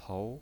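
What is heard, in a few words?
"pou" second tone, pinyin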